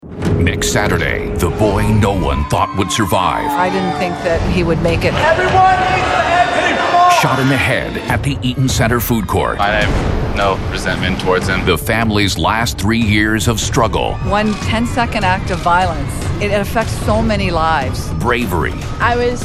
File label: TV Promos; BUITENLANDSE VOICEOVER; stemacteur